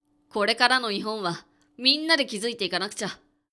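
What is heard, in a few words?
male; 男性 「これからの日本は、みんなで築いていかなくちゃ。」; パワフル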